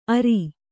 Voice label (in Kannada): "ಅರ", kannada